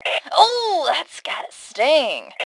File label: Taunt Sarcasm, Female; T2 Female 03